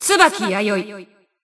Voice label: System Voice; BBCP; Bullet